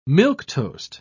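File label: "milquetoast", British English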